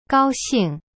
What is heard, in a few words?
"高兴", Chinese; gao xing